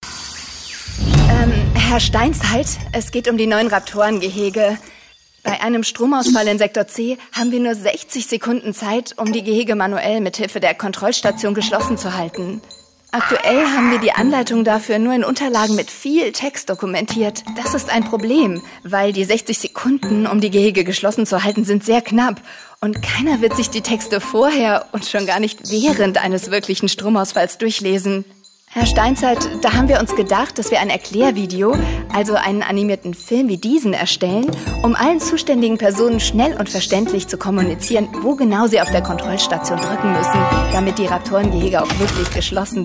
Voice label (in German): erklaervideo; Rielis Media